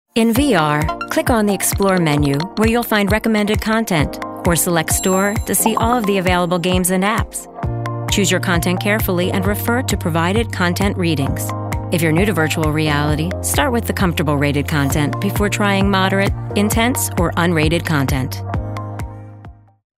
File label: Instructional Video